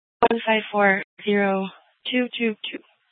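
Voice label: police department dispatch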